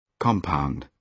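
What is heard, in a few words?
"compound", British English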